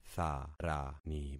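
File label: "tharani", concatenated syllables; Affixing experiment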